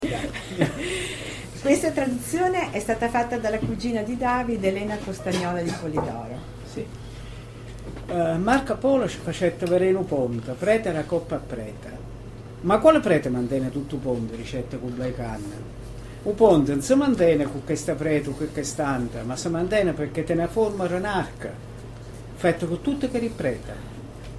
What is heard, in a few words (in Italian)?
Epilogo Procidano